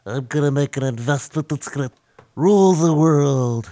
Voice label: Nerd Win; blackjack